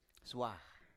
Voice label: "swa", Biak